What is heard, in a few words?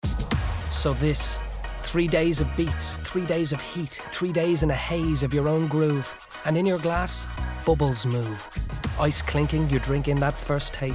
Radio; Bulmers Forbidden Fruit; Beats Bumps Bulmers